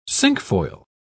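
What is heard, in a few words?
"cinquefoil", British English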